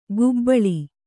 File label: "ಗುಬ್ಬಳಿ", Kannada; gubbaḷi; noun; a puff.